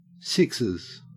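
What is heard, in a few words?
"sixes" English, Australian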